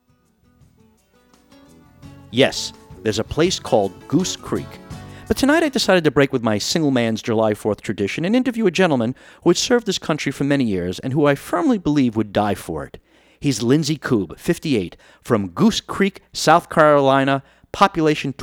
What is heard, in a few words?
Narration Sample